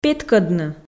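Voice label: "петкӧдны", Komi, female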